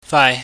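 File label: "faai", Cantonese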